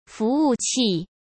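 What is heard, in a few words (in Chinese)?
"服务器", Mandarin Chinese; chinese-flashcards; hsk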